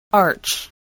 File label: "arch", English